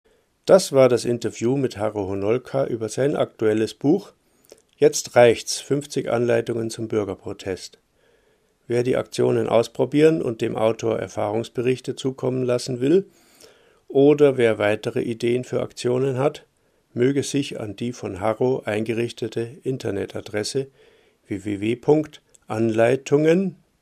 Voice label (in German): Ansage; Attac; LoRa